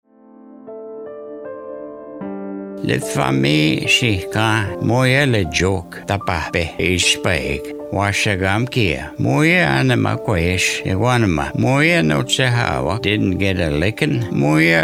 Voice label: Michif